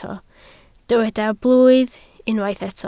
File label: "blwydd", Welsh